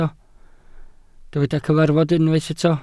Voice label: "cyfarfod" Welsh

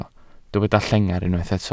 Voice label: "llengar" Welsh

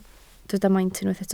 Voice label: "maint", Welsh

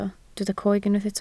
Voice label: "coeg", Welsh

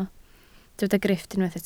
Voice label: "grifft", Welsh

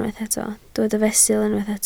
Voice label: "fesul", Welsh

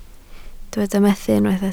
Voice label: "methu" Welsh

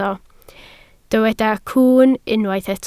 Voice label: "cwwn" Welsh

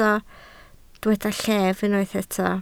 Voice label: "lleddf" Welsh

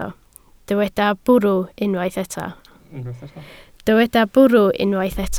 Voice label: "bwrw", Welsh

